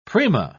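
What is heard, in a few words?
"prima", British English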